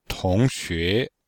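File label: "tong2xue2", Chinese; Cours-de-Chinois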